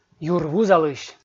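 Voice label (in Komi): "юр вузалысь" Komi, male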